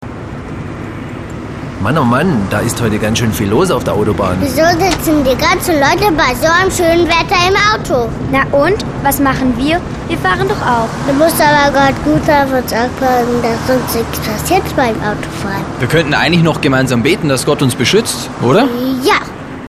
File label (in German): Sprechszene